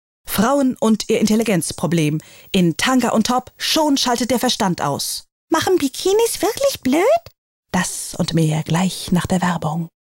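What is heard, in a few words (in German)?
werbung, männer; Sprecherinnen, Weibliche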